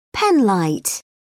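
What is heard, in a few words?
"penlight", English, British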